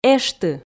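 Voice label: "эшты", Komi, female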